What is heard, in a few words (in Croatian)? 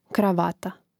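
"kravata", Croatian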